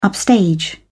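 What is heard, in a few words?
"upstage", British English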